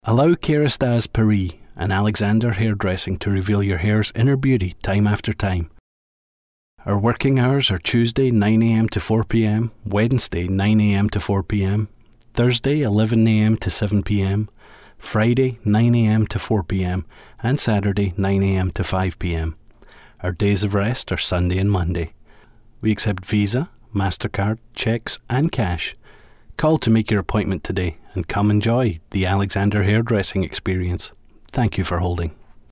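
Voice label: scottish commercial, Commercial Voice Talent; Measured Scottish accented voice.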